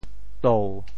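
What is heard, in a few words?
"tou7", Teochew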